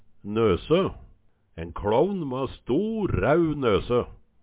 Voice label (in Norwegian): "nøsø", Numedalsmål; En kLåvn må ha stor, ræu nøsø